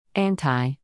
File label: "anti", English